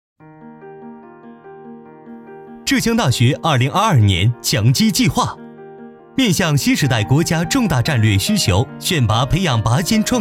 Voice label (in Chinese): 动画校园宣传